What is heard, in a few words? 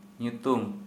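name, Santali, male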